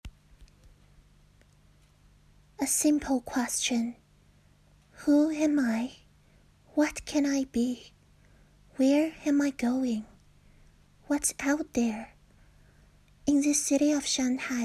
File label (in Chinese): 原声 英文 抒情 纯录音 不含任何配音设备